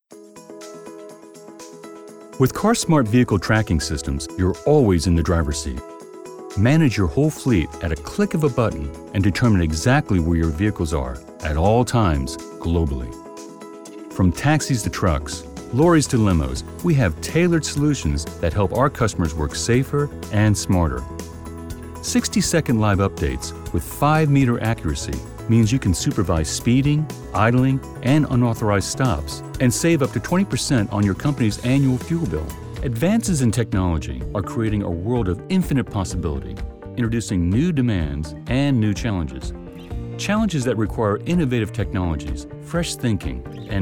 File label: Narrative